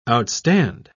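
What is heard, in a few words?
"outstand", English, British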